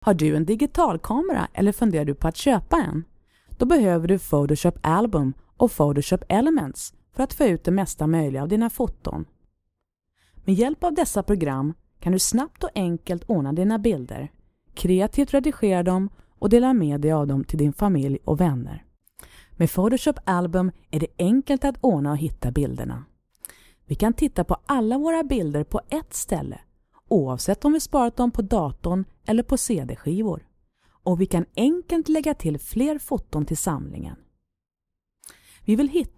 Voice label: Swedish, Swedish voice overs, Female